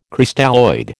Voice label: "Crystalloid", American